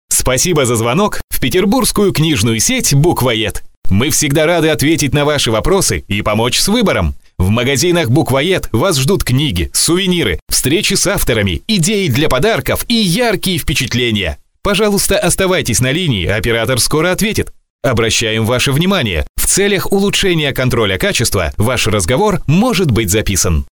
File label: IVR